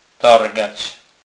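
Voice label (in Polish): "targac", Kurpie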